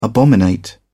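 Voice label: "abominate", British English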